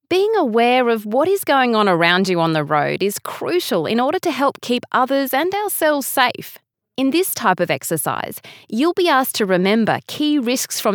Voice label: eLearning